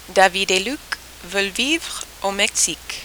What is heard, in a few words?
rhythm, French